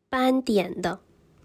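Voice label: "斑点的", Chinese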